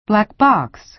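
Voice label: "black box", American English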